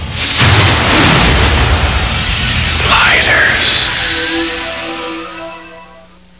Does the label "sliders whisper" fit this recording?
Yes